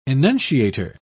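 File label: "enunciator", English, British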